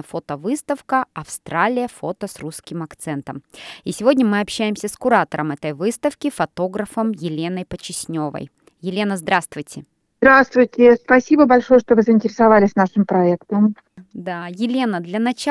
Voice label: russian; SBS Russian; The online exhibition "Photos with a Russian Accent" brings together works from all over Australia

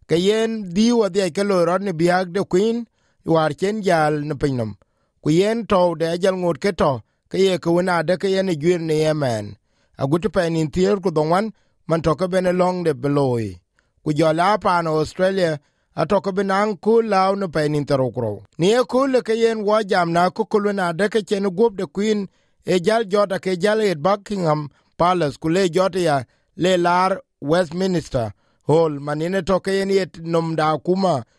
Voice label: dinka; Kɔc juïc ake kɔ̈ɔ̈th mac keke kɔɔr bïk guɔ̈p ë Queen lɔ tïŋ në UK; SBS Dinka

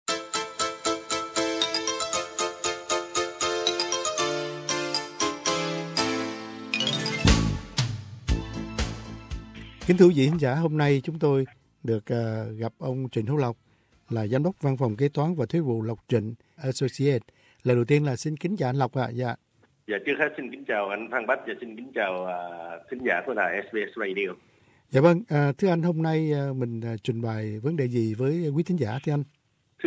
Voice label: vietnamese; Thuế và bạn (47) Tiểu thương phải thi hành hệ thống Super Stream mới; SBS Vietnamese

